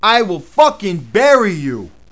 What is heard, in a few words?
cuss, profanity, male; kingpin